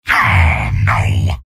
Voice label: negative vocalization, soldier; tf2; garrysmod; mvm